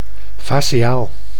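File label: "faciaal", Dutch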